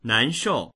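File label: "nan2shou4", Mandarin Chinese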